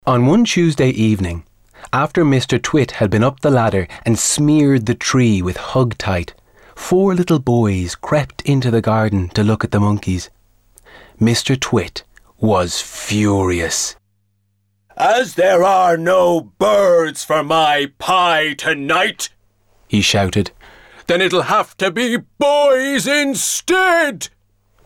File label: narration